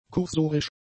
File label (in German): "kursorisch", German; adjectives